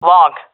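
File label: loc long, female; vo; Counter Strike Model Pack; CS:GO; seal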